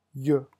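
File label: "yeux", French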